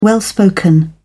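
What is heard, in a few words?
"well spoken", British English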